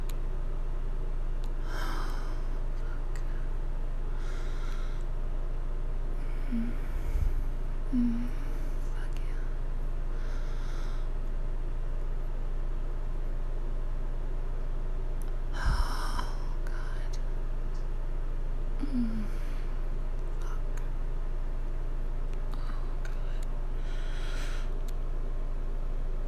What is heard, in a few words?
Whispers Moans Gasps Wet Noises, F4M; Another 3pm Ache; Public; Stroke with me; Almost got caught; Giggles